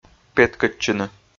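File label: "петкӧдчыны", Komi, male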